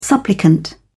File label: "supplicant", en uk